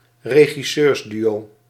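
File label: "regisseursduo", Dutch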